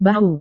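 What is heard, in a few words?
"bahu", Indonesian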